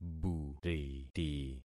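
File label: "buriti", concatenated syllables; Affixing experiment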